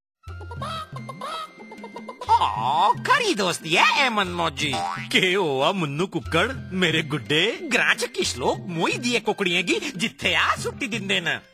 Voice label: Radio Dogri

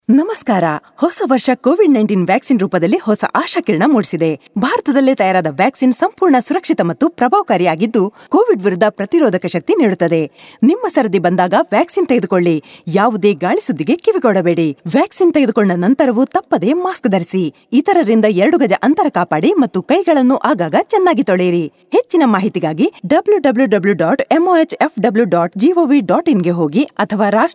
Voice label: Kannada Caller Tune

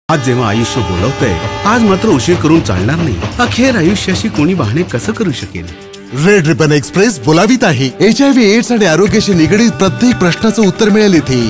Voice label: Radio Marathi

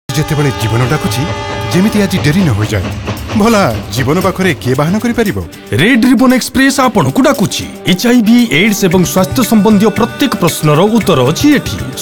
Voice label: Radio Oriya

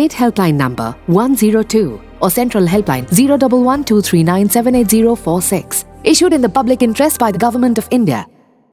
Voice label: Cough Radio English, Radio spot; Mizoram; on key behaviours COVID-19; SARS-CoV-2; UNICEF IEC eWarehouse

